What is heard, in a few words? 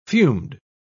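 "fumed", British English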